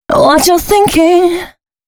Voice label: female, Dance Ultra Voice hooklines